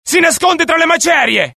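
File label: alert, Italian; mohaa; dialogue; sighted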